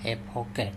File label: put the pot on the fire or fry the meat e pHoke, andamanese